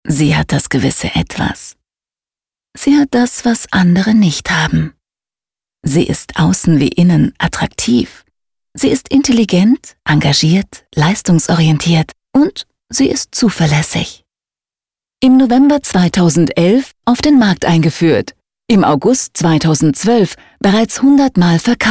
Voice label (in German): Industriefilm; Contiform